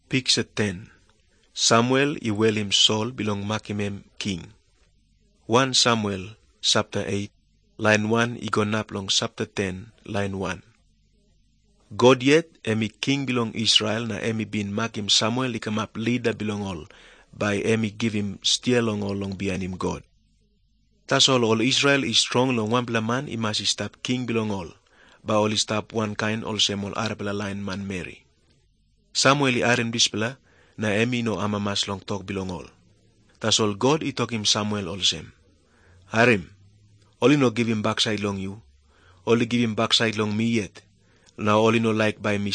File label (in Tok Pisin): tok pisin, drama; png nt drama; servants of god